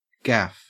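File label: "gaff", Australian English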